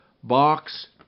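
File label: "box", English; RhymeTest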